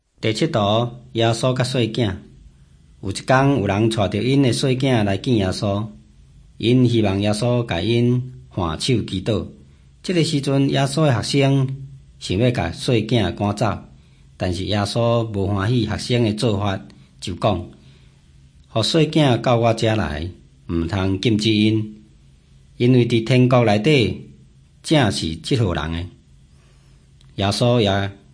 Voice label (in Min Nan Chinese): taiwanese, drama; hokkien; taiwanese min nan; jesus teacher and healer